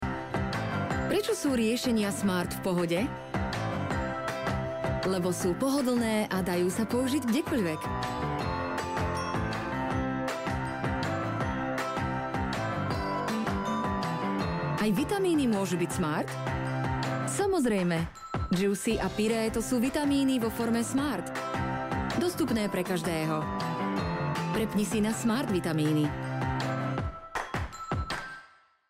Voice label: slovak promos; slovak voice over talent